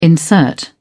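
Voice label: "insert", British English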